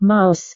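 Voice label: "mouse", Indonesian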